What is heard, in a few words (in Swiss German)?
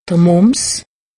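"mumps", Alsatian; medecine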